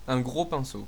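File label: paintbrush large desc, French; household; tools; tuxpaint-stamps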